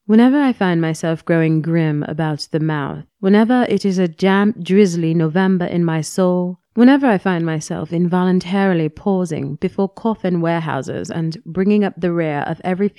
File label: Narration Accent